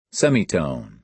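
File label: "semitone", American English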